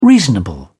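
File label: "reasonable", British English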